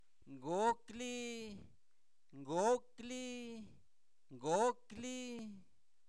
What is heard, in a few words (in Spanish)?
antiguamente, Zapoteco, santo domingo albarradas; adverbios; INALI